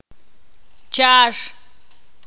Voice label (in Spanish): "verde", ixil